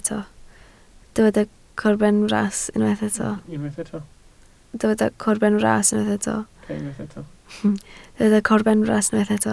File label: "corbenfras", Welsh